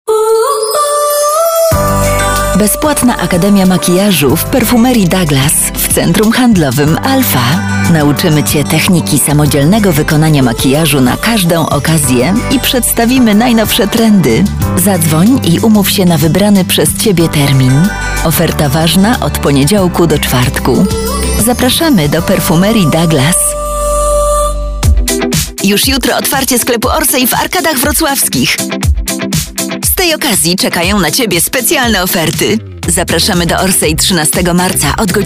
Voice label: COMMERCIAL